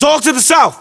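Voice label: British threat dog south; battlechatter; localized english; uk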